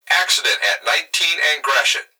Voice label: Emergency Human Male Voice Fire Dispatch Accident At And